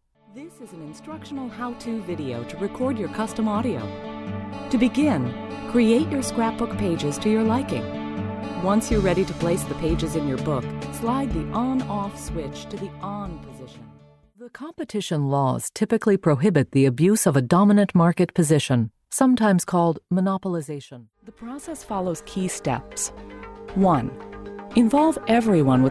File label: e-learning